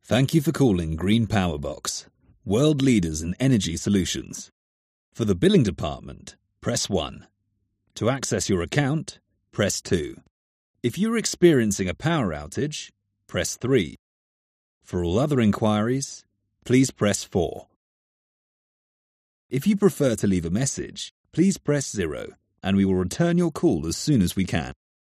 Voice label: english british ivr; locutor de doblaje en inglés (inglés del sur británico)